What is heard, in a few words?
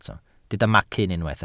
"macyn", welsh